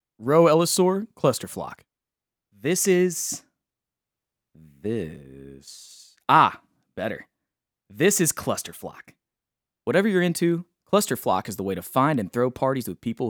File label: commercial